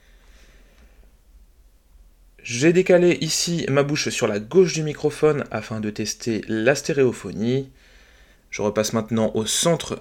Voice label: roccat torch soundtest stereo normalise